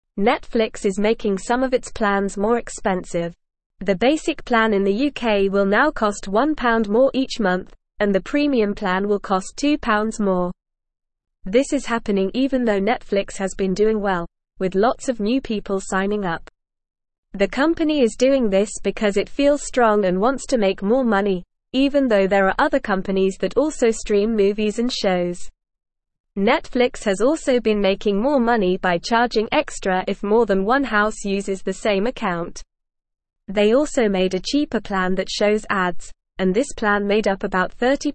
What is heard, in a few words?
English Newsroom Beginner NORMAL Reading; Netflix Makes Plans More Expensive Wants More Money